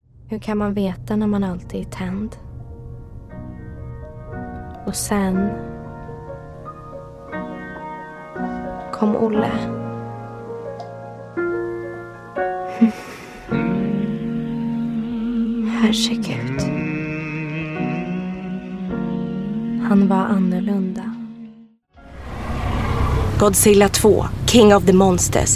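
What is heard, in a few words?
Swedish, General; GoLocalise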